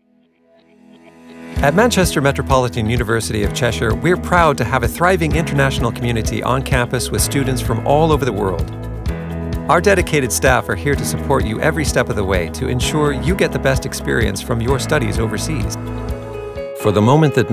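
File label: Commercial Reel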